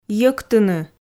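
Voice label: "йӧктыны", Komi, female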